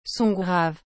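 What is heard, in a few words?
"son grave", French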